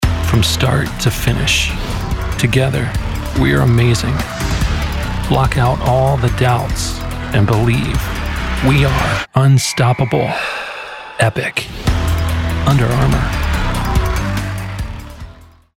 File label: commercial; under armour